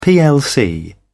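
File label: "plc", British English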